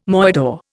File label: "moidore", Indian